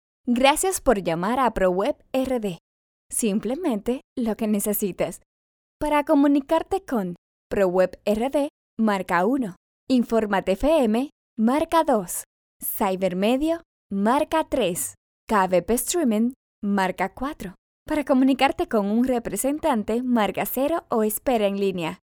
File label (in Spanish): IVR